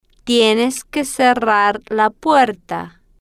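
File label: tienes que cerrar la puerta, slow, spanish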